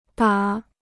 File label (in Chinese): "打", Chinese